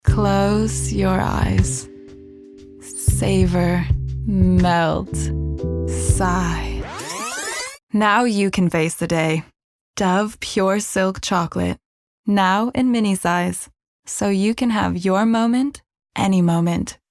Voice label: female english usa transatlantic accent chocolate commercial; voices; dover; devine voices